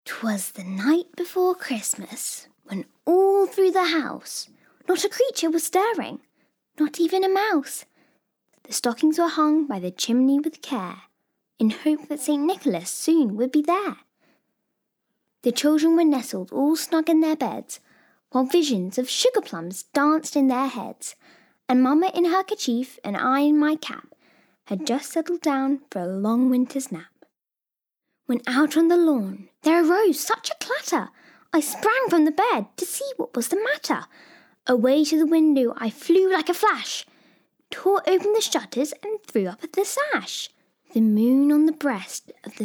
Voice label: Bookread; Night Before Xmas